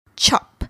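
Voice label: "chop", English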